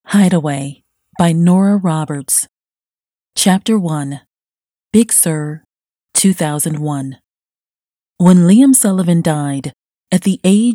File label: audiobook; Hideaway